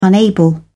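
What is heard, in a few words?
"unable", British English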